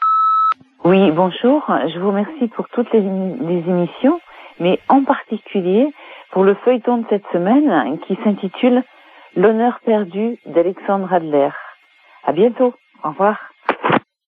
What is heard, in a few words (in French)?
répondeur; Derapage Alexandre Adler